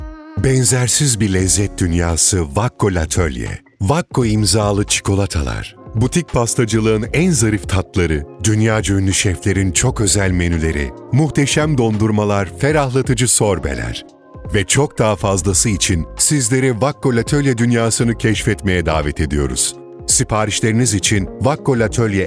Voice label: sicak sakin, seslendirme

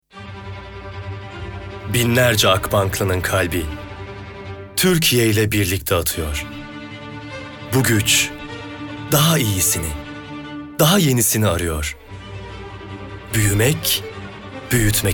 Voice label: guuclu otoriter; seslendirme

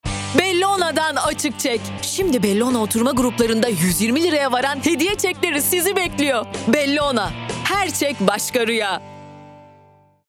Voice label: kampanya tempolu, seslendirme

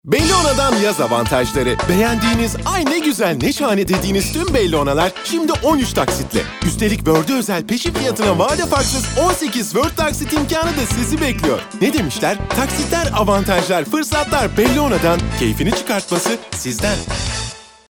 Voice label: kanpanya tempolu, seslendirme

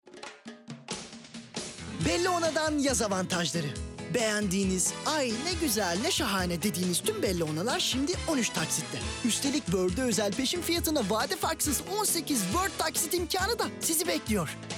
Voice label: kampanya, seslendirme

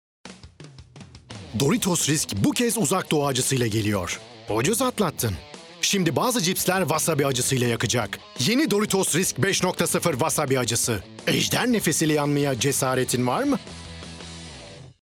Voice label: enerjik esprili; seslendirme

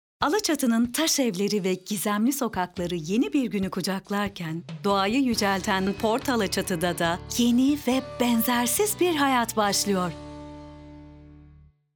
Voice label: enerjik tempolu, seslendirme

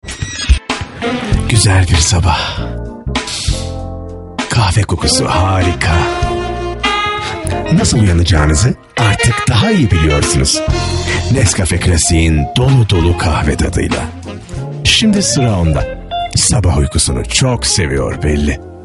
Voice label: sicak samimi

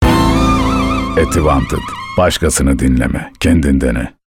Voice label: enerjik esprili

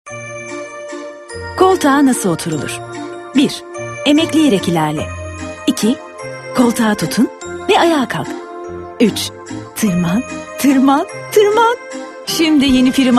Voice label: enerjik esprili, seslendirme

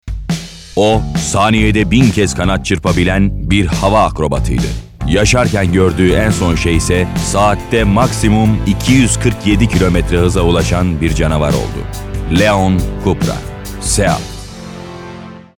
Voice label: kurumsal imaj

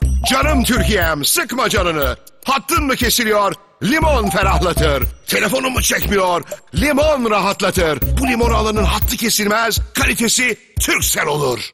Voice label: enerjik esprili, seslendirme

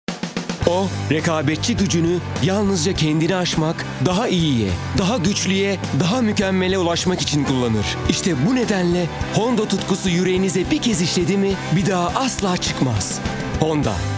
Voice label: enerjik tempolu, seslendirme

